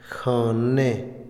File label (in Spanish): lengua, Otomí, hombre; INALI